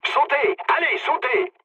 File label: bailout mood low, speech fr; War Thunder